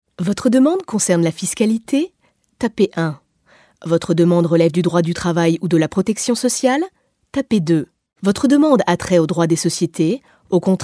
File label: fr voice message